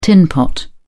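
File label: "tinpot", British English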